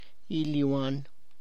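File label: body heat, Tlacochahuaya Zapotec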